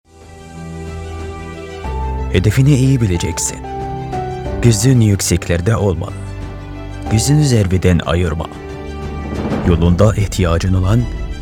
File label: Turkish; voiceover